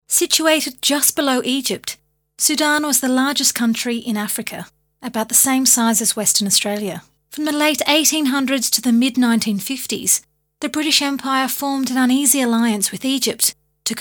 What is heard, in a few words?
Corp Read; VOICEOVER